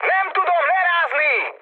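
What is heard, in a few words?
Hungarian aircraft damaged mood high, speech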